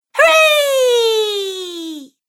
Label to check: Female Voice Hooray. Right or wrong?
Right